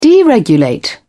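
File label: "deregulate", English, British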